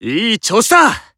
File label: Emo Glad